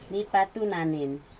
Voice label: goat horn, Aneityum